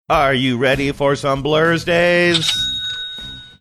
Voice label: saying are you ready for some blursdays funny

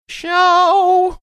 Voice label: saying "show" funny

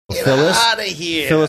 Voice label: saying get outta here funny

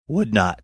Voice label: saying would not voice cracking

